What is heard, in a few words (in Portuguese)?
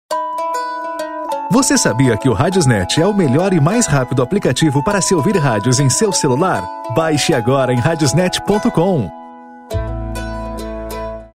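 O melhor mais rapido app, masculino; RadiosNet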